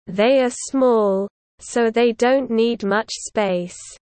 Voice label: low; Animal friends